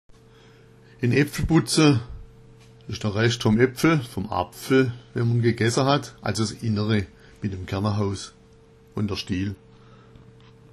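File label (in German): "epfelbutza", schwäbisch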